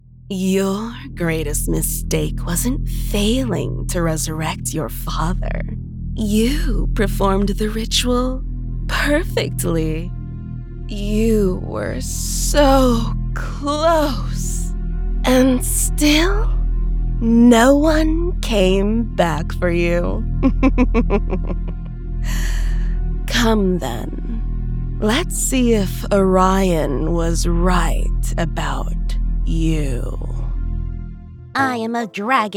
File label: Character Demo